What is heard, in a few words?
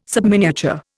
"subminiature", Indian